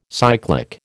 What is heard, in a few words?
"cyclic", American